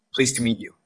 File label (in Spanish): Voice Acting es M